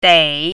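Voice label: "dei3", Mandarin Chinese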